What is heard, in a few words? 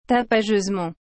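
"tapageusement", French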